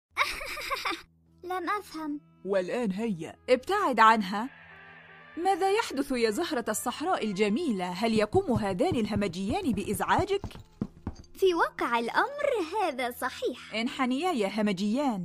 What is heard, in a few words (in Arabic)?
arabic cartoon animation